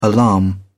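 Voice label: "alarm", British English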